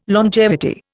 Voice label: "longevity", Indian